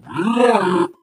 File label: impatient; Portal 2; Source Engine; video games; ballbot